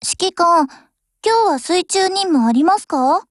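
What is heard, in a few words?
Japanese, Voice; GF Alarm; SPP1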